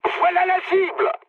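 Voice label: near waypoint, mood high, French; War Thunder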